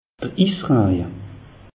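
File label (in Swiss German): "israje", Alsatian; meteo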